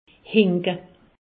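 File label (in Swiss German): "hinke", Alsatian; medecine; boiter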